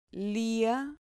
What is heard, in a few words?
"lia", Spanish syllable